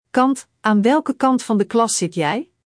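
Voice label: "kant", Dutch; Spelling Gr4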